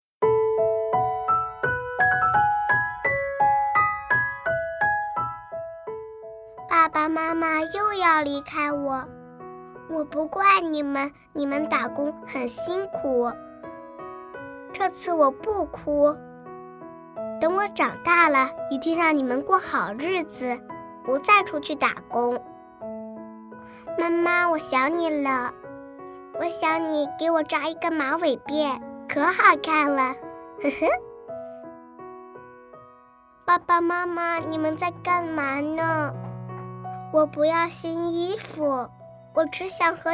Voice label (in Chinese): 女 真女童 团圆